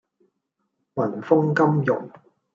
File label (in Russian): Голоса, Гонконгский